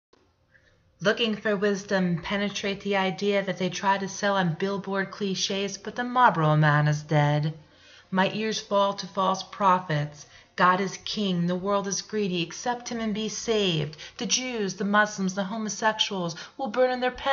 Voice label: narration